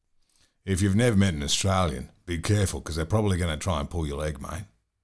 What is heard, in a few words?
Australian